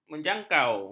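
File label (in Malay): "menjangkau", Malay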